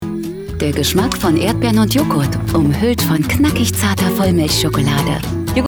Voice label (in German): TV Spot; Yogurette